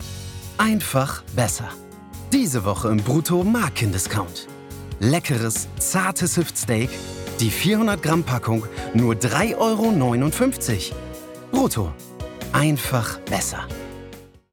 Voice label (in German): brutto (werbung)